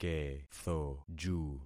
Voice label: "kethoju", concat syllables; Affixing experiment